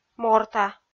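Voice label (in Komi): "морта", Komi, female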